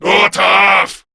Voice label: Attack, Male